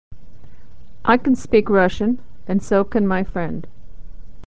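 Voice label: can, American English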